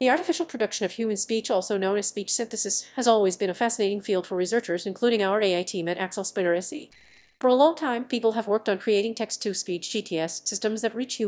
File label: fast; alpha 1.5